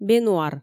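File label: "бенуар" Komi, female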